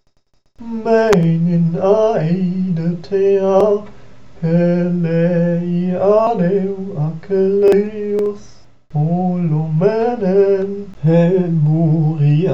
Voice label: Iliad musically rhythmic